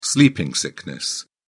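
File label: "sleeping sickness", British English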